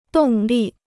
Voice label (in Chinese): "动力", Chinese